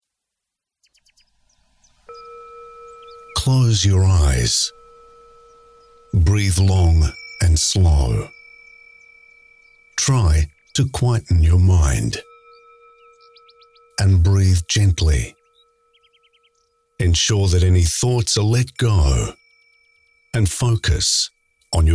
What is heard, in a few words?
Australian Mixed